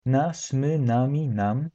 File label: polish us, polish